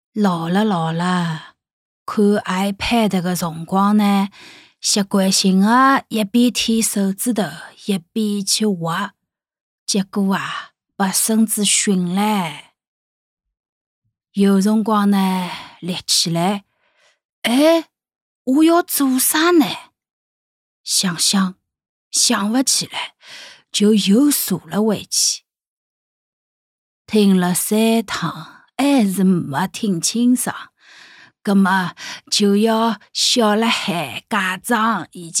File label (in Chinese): 沪 老年女性